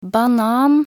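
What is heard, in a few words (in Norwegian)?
"banan", Norwegian; talkbook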